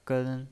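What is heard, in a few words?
"gen", Mandarin Chinese, first tone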